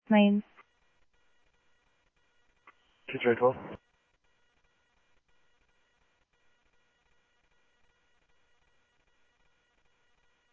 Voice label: Mesa Simulcast, MESA PD, scanner audio; 3 Red Mt; MesaPoliceDep